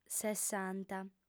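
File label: "sessanta", female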